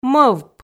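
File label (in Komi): "мӧвп", Komi, female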